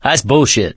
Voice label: reaction negative, survivor voice mechanic; source engine; left 4 dead 2